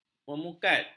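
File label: "memukat", Malay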